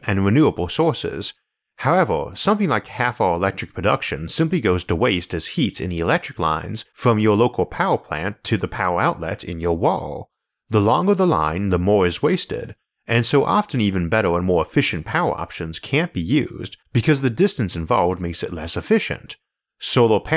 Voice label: the impact of superconductors narration only; isaac arthur

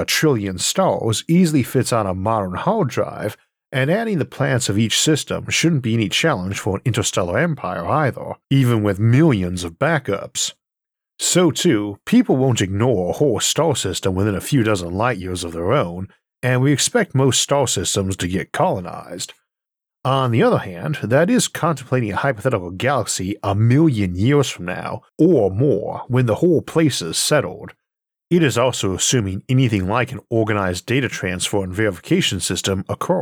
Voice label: lost space colonies narration only; isaac arthur

